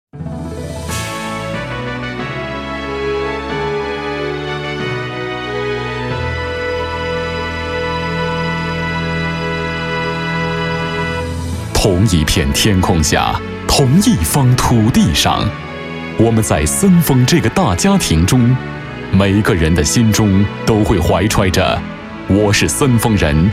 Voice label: 国语男, 企业年会类; 森风集团

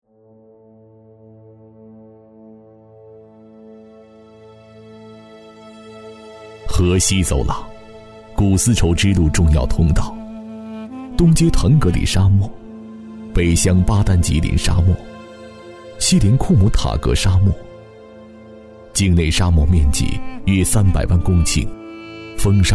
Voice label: 国语男 纪录片 历史沧桑感; 大漠风流

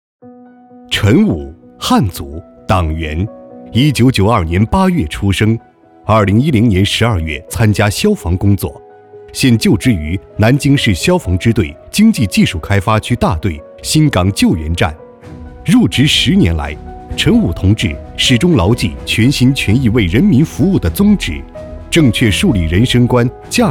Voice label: 国语男, 人物 解说样音

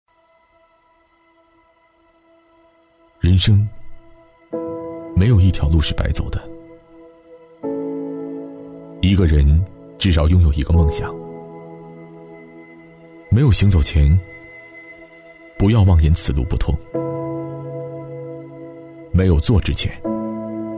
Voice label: 国语男 广告 品牌宣传; 润妮秀GRACES

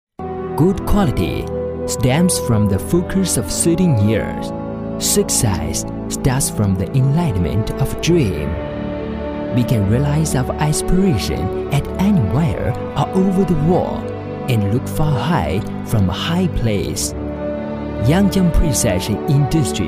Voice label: 英语男 精密仪器宣传片; 阳江

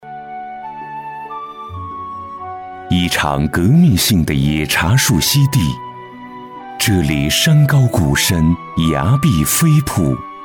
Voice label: 国语男-【娓娓道来】; 闽景印象红茶

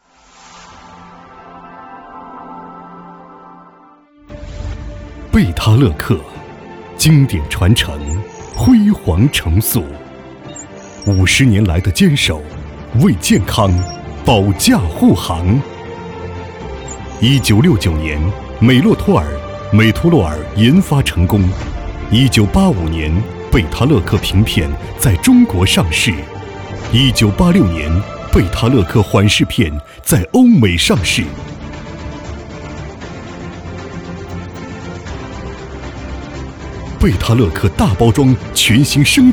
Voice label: 国语男 广告; 倍他乐克

